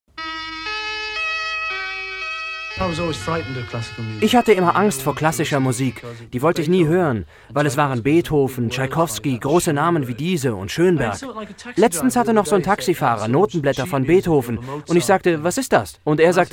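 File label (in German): commentary, Cool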